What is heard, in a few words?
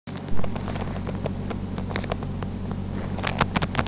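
"cola", Mandarin Chinese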